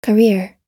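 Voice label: "career", English; oxford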